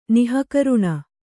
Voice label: "ನಿಃಕರುಣಿ", Kannada

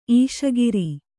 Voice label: "ಈಶಗಿರಿ", kannada

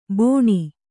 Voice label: "ಬಾಣ", Kannada

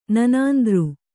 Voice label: "ನನಾಂದೃ", Kannada

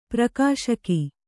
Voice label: "ಪ್ರಕಾಶಕ", Kannada

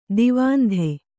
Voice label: "ದಾವಂತ", Kannada

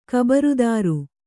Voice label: "ಖಬರದಾರಿ", Kannada

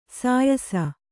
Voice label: "ಸೀಯಿಸು", Kannada

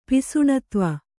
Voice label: "ಪಿಸುಣತ್ವ", Kannada

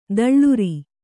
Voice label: "ತಳಿರು", Kannada

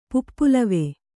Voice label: "ಪುಪ್ಪುಲವೆ", Kannada

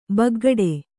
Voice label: "ಬಗೆಗಿಡು", Kannada

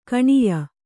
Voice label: "ಕನೀಯ", Kannada

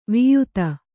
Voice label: "ವಾಯಿದೆ", Kannada

